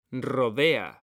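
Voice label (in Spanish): "RODEA", Spanish; mekanta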